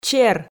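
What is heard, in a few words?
"чер", Komi, female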